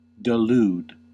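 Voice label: "delude", US English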